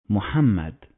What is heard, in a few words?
"Mehmet", Arabic